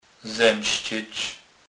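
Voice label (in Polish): "zemscec", Kurpie dialect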